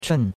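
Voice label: "chun4", Chinese; Cours de Chinois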